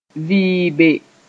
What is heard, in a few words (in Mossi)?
"vɩɩbe", moore